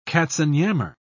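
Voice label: "katzenjammer", British English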